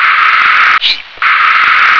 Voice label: "heap", English

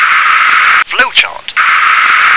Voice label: "flowchart", English

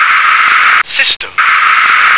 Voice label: "system", English

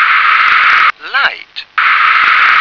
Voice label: "light", English

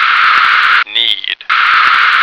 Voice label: "need", American

